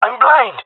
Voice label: blinded, jungle male; player vo; Counter Strike Model Pack; CS:GO